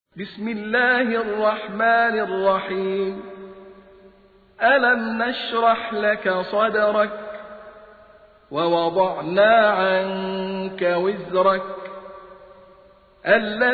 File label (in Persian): ترتیل; سوره انشراح